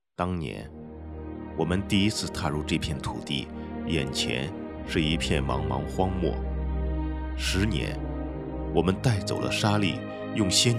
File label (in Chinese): 解说; 天莱香牛